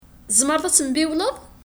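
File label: Kabyle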